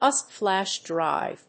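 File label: "USB flash drive", English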